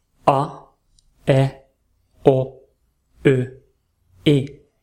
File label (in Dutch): spelling lang kort korte klanken